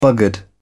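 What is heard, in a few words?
"buggered", British English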